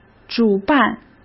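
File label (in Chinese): "主办", Mandarin Chinese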